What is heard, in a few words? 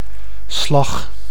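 "slag", Dutch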